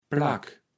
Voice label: "Plack", kaevels platt

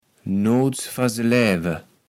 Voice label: "nootsvanseläwe", kaevels platt

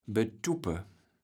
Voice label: "betuppe", kaevels platt

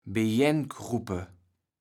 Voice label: "bejenn-kruppe", kaevels platt

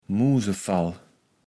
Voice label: "Musefall", Platt

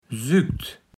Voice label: "sükt", Platt

